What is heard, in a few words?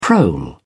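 "prole", British English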